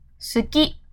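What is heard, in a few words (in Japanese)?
"好き", Japanese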